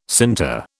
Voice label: "sinter", British

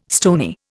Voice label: "stony", Indian

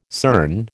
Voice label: "CERN", American

